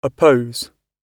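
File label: "oppose", British English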